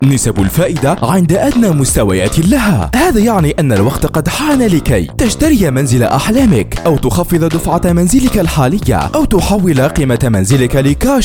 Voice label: Arabic Voiceover